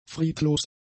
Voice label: "friedlos", German; adjectives

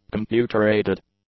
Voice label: "computer-aided", English; adjectives

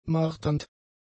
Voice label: "marternd", German; adjectives

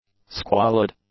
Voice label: "squalid", english; adjectives

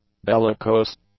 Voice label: "bellicose", English; adjectives

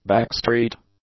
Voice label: "backstreet", English; adjectives

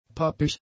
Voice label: "pappig", German; adjectives

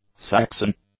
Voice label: "Saxon", english; adjectives

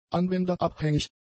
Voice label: "anwenderabhängig", German; adjectives

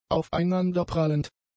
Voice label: "aufeinanderprallend", German; adjectives

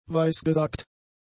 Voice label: "weisgesagt", German; adjectives

